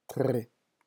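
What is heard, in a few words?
"tres", French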